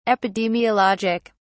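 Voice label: "epidemiologic", British English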